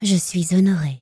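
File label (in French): "je suis honoré", French, elf, male; PhoenixCraft-1.16.5; PhoenixNPCPack; minecraft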